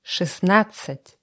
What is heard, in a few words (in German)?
russische zahl 20; russische zahl 16; Zahlen auf Russisch